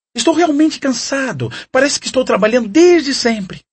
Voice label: peasant male

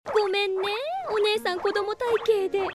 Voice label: jealous; saegusa mayumi

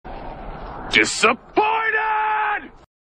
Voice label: disappointed; hercules

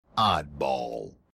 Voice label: oddball voice; halo reach

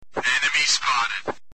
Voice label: counter strike enemy spotted sound effect

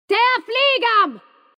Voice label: female; for honor conq; te affligam

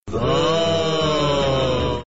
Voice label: ooohhh

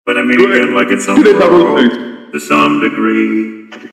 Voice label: autotune right

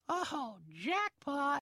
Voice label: old man jackpot

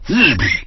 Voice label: chatter; lego star wars; 4-lom

